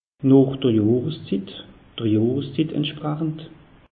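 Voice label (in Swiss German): "johreszit", Alsatian; meteo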